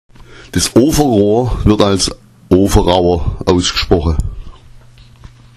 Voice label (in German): ofaraor, schwaebisch; Das Ofenrohr wird als Ofa'raor ausgesprochen